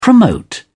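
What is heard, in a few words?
"promote", British English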